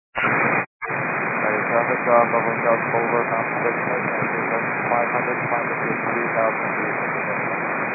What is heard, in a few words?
scanner radio 122.925 MHz